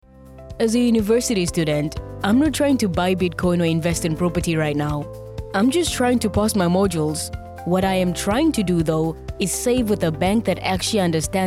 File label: positive motivation uplifting corporate, female; female elearning and explainer voice over